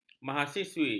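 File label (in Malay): "mahasiswi", Malay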